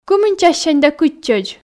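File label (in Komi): кымын чассянь да кытчӧдз, Komi, female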